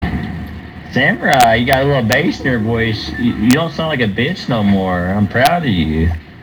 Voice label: bass in voice